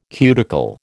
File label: "cuticle", American